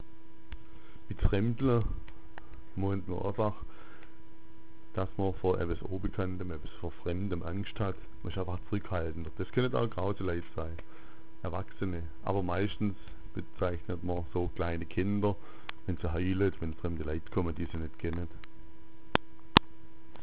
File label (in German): fremdla, schwaebisch